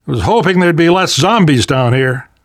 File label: world hospital, namvet, survivor voice; Left 4 Dead 2; left4dead2 dlc3